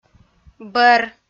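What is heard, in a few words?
"бӧр", Komi-Zyrian, female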